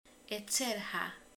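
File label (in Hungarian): "sátor", Hungarian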